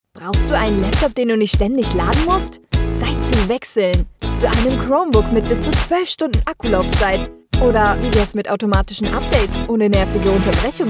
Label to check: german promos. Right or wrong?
Right